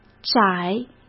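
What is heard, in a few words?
"窄", Mandarin Chinese; chinese flashcards; hsk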